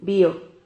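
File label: "bio", Spanish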